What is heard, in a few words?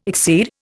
"exceed", Indian